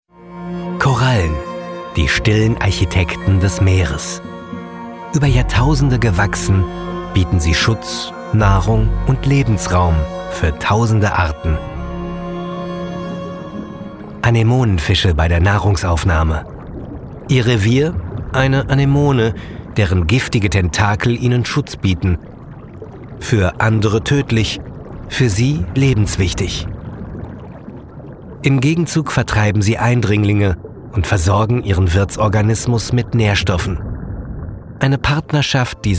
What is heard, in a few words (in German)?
doku